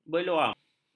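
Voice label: "beluam", Malay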